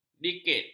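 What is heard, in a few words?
"dikit", Malay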